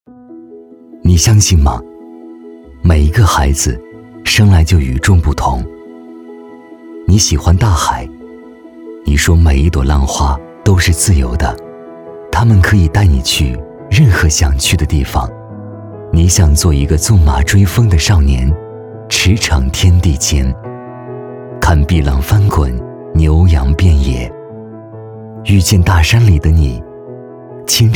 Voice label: 男 企业 走心感; 天立集团

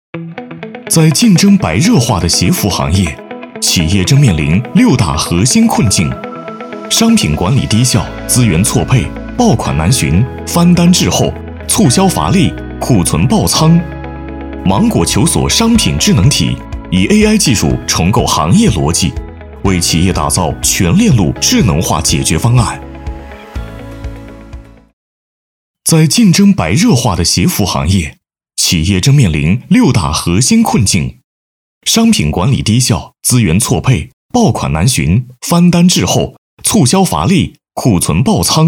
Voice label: 男 宣传 偏科技感

